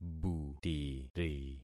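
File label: "butiri", concatenated syllables; Affixing experiment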